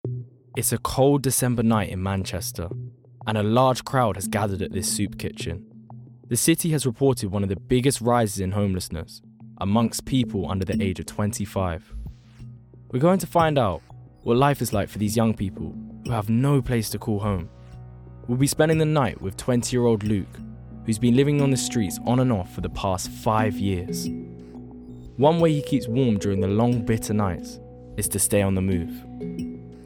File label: doco